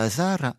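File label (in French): "sarra", Corsican, Rocca (sud); Corse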